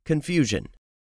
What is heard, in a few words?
"confusion", English; 3000 words